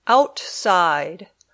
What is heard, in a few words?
"outside", English